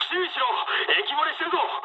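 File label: damaged water mood high, speech japanese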